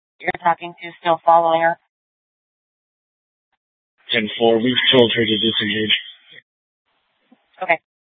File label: Scottsdale PD Sth Dispatch, scanner